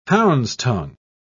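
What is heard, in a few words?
"hound's-tongue", British English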